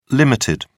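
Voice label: "ltd.", British English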